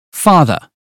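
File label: uk phonetics word "father", British; 英语音标发音（剑桥版）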